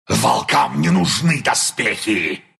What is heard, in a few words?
attack, ru; dota2